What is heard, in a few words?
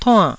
"tuann4", Taiwanese